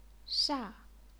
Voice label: "sha4", chinese pinyin